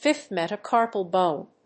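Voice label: "fifth metacarpal bone", English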